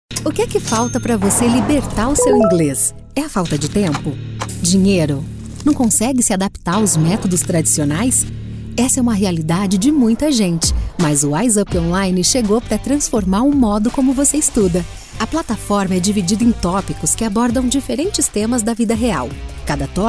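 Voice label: Brazilian Portuguese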